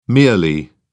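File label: "merely", British English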